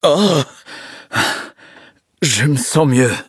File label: levelup, fr